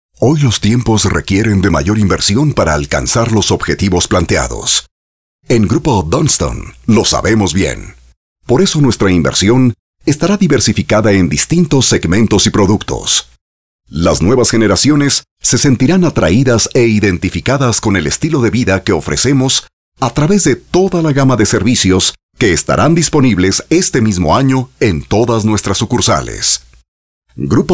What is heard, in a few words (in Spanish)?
locucion tono corporativo locutor sin musica